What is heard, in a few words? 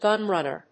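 "gunrunner", English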